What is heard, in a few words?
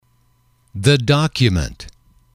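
"document", english, male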